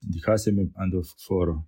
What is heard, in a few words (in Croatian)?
romski; POP UP Zagreb